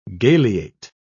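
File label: "galeate", British English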